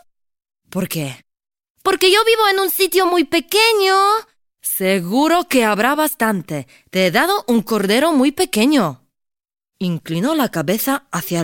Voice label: Spanish kid book

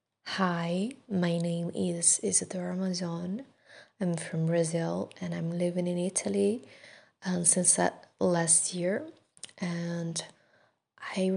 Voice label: Presentation english

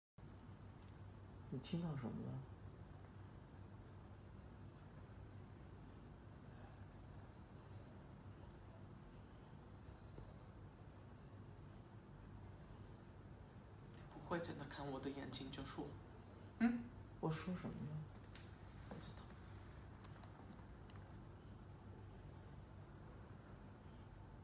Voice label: Chinese exercise

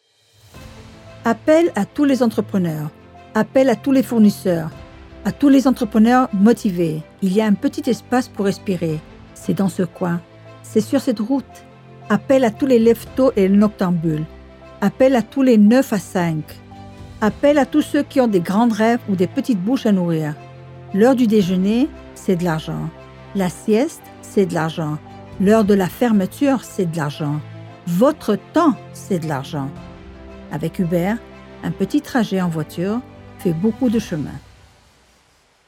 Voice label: french; UBER

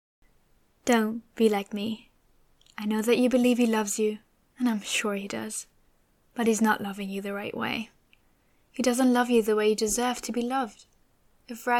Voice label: English Reel audiobook style

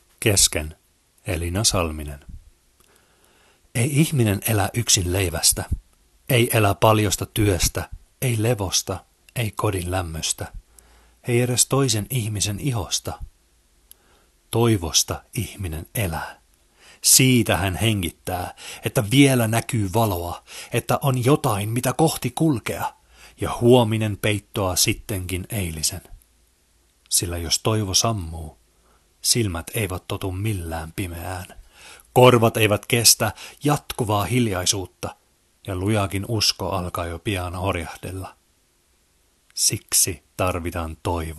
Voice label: multilingual voicereel

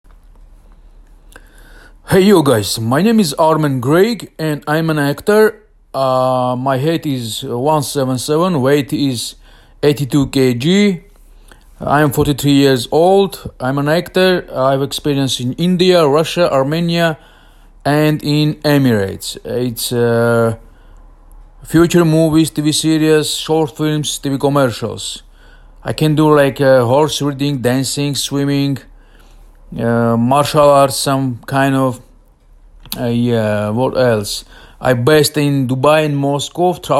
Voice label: English

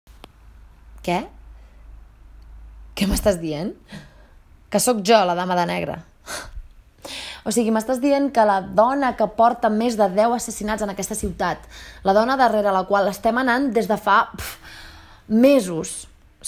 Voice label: Catalan

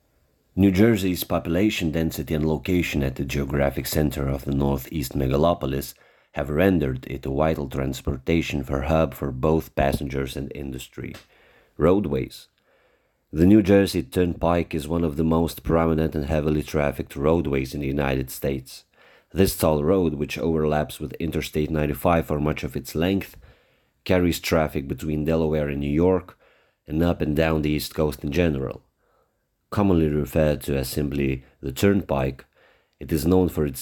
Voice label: ENG

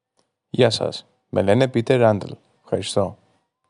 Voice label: greek

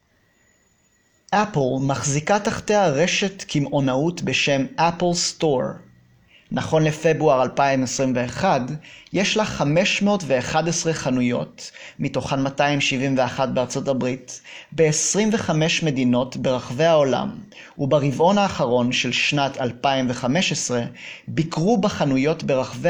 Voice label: Hebrew

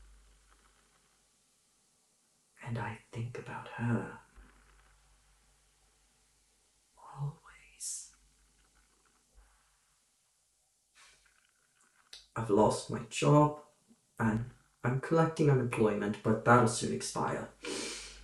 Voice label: British

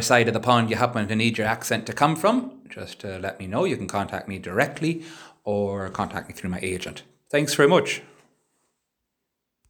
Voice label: American Irish

